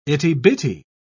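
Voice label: "itty-bitty", British English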